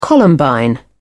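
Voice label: "columbine", British English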